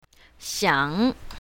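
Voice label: "xiang3", Chinese pinyin, south, female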